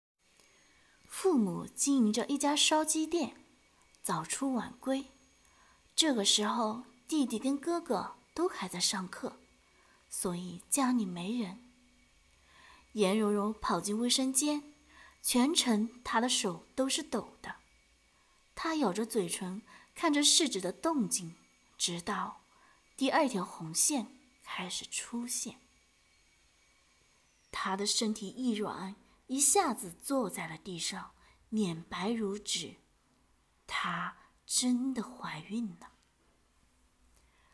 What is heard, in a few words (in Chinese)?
都市小说配音